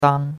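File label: "dang1", Chinese; Cours-de-Chinois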